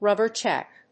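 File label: "rubber check", English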